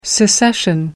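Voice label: "secession", British English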